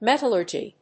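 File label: "metallurgy", English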